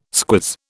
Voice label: "squiz", British